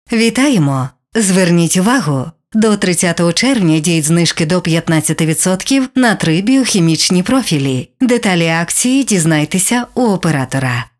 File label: IVR